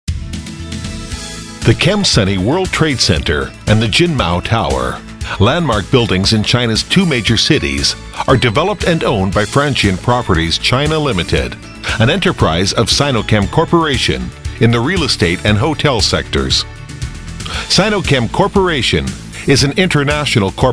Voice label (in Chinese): 男